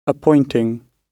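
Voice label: "appointing", British English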